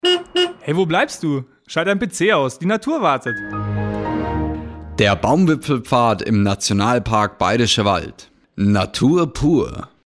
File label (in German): Werbung Reminder; Erlebnis Akademie